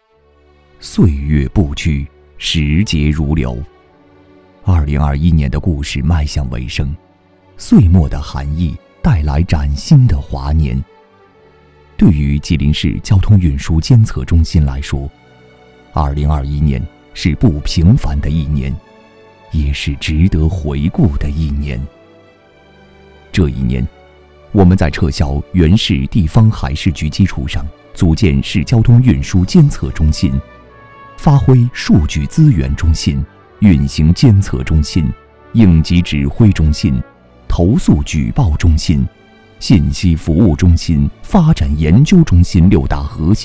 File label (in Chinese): 男 大气专题; 交通